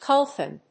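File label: "colophon", English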